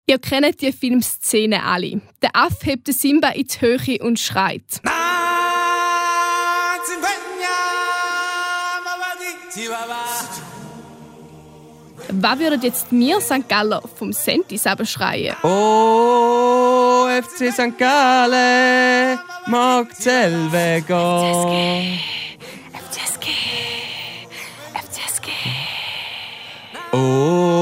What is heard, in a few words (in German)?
Disney Sangaller Dialekt; Disney Klassiker auf Sangaller isch